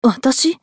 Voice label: スカウト女 選択

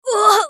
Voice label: ソルジャー女 ダウン; リボルドウェソルジャー

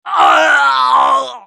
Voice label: ソルジャー男 ダウン; リボルドウェソルジャー

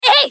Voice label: ソルジャー女 攻撃短; リボルドウェソルジャー

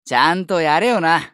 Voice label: スカウト男 リサシテーション

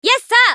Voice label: 女･選択; マスケッティア

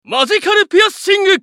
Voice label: ウィザード男 マジカルピアシング

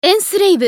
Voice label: ウィザード女; エンスレイブ

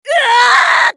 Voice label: ウォーロック女 死亡

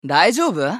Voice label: スカウト男 移動

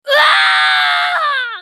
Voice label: ソルジャー女 死亡; リボルドウェソルジャー

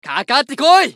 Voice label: ファイター男 プロヴォーク

